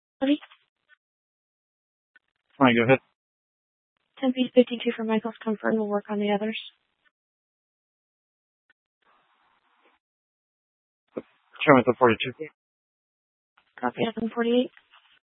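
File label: Tempe Police Department, scanner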